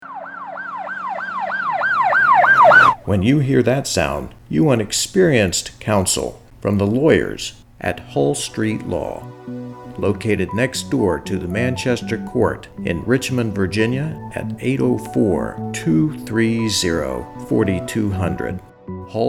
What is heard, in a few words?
Radio Commercial; Hull Street Law